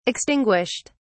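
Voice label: "extinguished", British English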